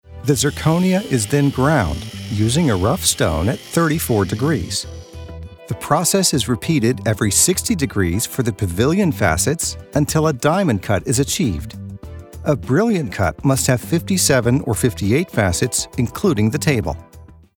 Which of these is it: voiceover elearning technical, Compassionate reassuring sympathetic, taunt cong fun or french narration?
voiceover elearning technical